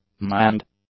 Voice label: "manned", English; adjectives